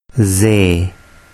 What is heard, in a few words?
"ze", urdu